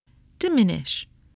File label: "diminish", english spelling